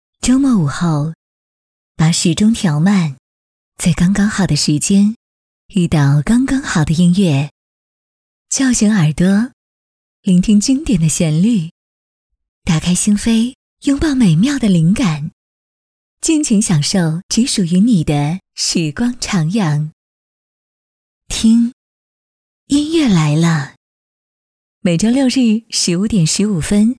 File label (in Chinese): 台宣片花干声小资情调